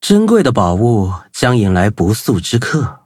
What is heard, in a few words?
loading zh-hant; Cookie Run